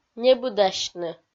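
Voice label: "небыдасьны", Komi, female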